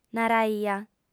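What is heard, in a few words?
"naraia" Sardinian, female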